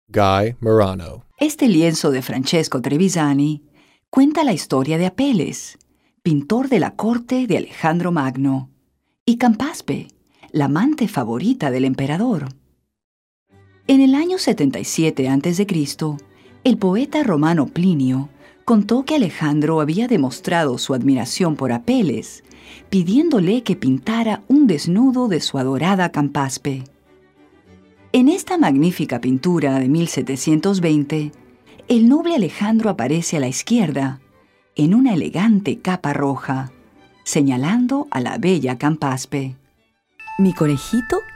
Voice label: Spanish Narration